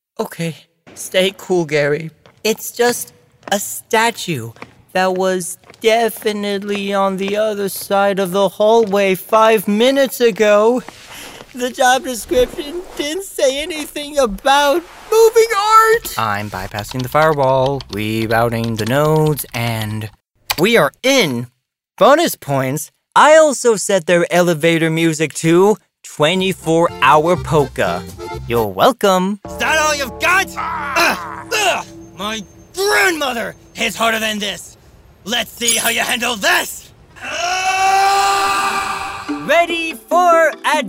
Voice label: Character